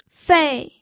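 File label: "fei", pinyin, fourth tone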